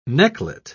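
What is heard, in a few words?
"necklet", British English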